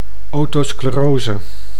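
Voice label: "otosclerose", Dutch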